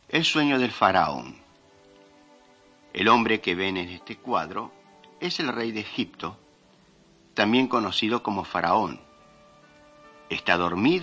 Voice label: mighty men of god spanish latin america

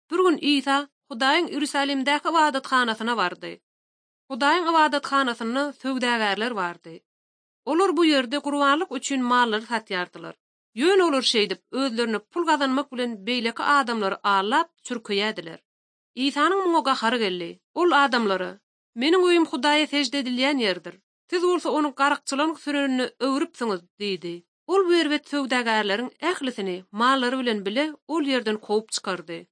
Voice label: turkmen, ot drama

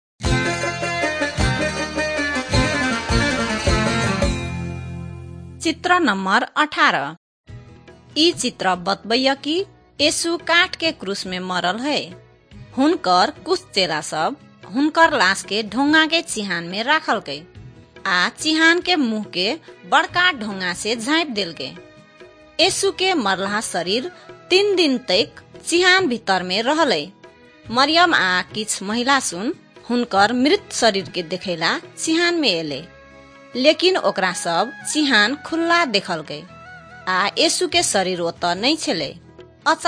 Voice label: maithili thetiya

